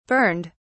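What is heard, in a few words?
"burned", British English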